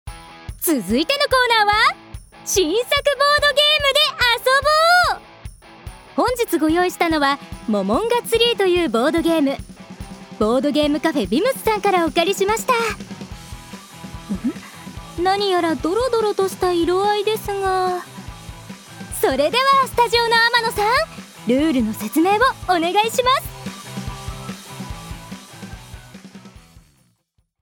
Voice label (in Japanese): ナレーション